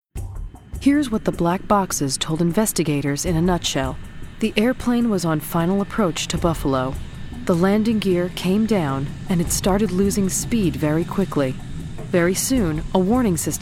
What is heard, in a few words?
Narration